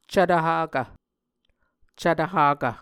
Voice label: "cvtvhakv", muscogee

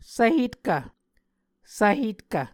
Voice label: "svhetkv", Muscogee

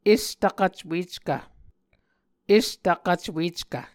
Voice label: "estvkvcweckv", Muscogee; Mvskoke Words with Voicing Consonants; A Dictionary of Creek/Muskogee; SIL International; Onvkv Ohhvyayices

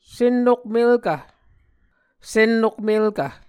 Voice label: "sennokmelkv", Muscogee

